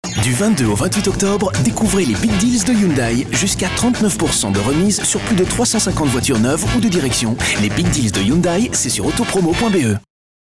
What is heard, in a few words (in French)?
Voix M